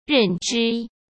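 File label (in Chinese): "认知", Mandarin; chinese-flashcards; hsk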